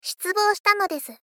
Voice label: Moe Dislike Shitsubo shita nodesu; Rei Yumesaki